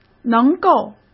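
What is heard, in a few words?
"能够", Mandarin Chinese; chinese-flashcards; hsk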